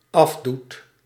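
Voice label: "afdoet", Dutch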